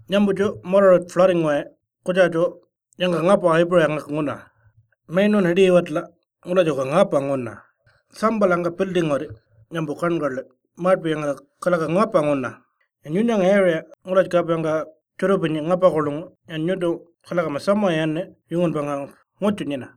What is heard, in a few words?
Warlpiri floods message; tropical cyclone esther february 2020; NTFRES